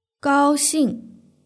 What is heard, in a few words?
"gaoxing", Chinese; hsk3